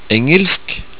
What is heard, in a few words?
"engelsk", Norwegian